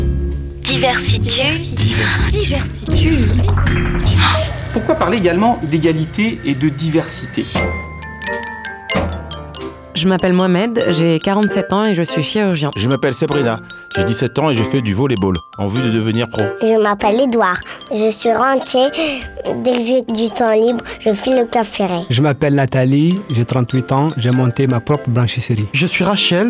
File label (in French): radio pub spot